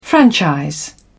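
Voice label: "franchise", English, British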